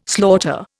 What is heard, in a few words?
"slaughter", Indian